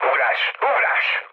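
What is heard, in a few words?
Hungarian bailout mood low, speech; War Thunder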